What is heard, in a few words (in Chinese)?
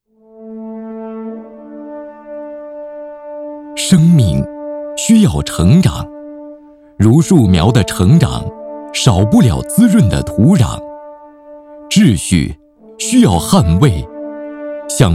男 专题 四新派出所